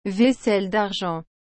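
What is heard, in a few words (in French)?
"vaisselle d’argent", French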